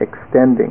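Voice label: "extending", English; ABE Responsive Text Demo; CD-ROM Chapter 2.2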